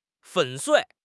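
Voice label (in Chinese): "粉碎", Mandarin Chinese; HSK6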